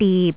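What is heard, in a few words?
"dip6", Jyutping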